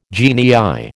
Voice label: "genii", American

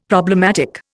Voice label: "problematic", Indian; Dictionary

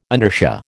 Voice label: "inertia", American

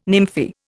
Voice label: "nymphae", Indian

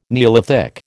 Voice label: "neolithic", American

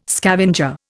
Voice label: "scavenger", Indian

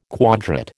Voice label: "quadrate", American

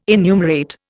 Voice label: "enumerate", Indian

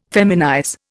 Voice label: "feminize", Indian

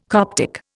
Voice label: "Coptic", Indian

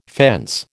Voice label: "fence", American

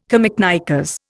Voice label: "camiknickers", Indian

